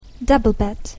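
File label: "double bed", English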